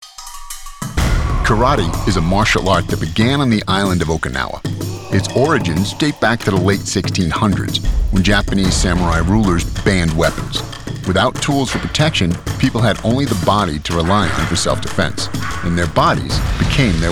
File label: Narration